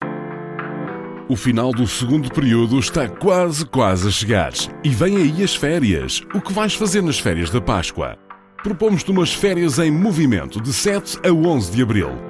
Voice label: portuguese